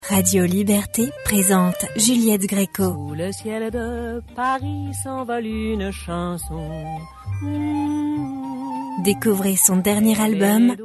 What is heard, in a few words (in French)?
Habillage radio